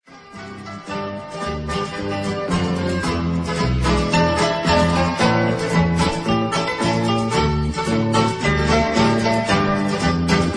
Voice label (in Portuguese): Mensagem Feliz Natal Seus Sonhos (Voz Fem); Natal E Ano Novo